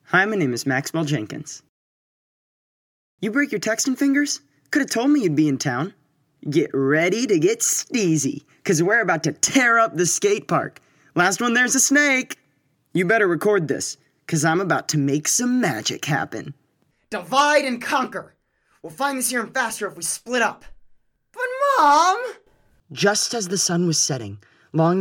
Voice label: animation; Innovative LA